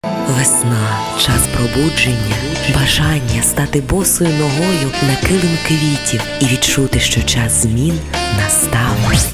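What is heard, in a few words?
ukrainian female voice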